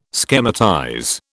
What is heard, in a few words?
"schematize", British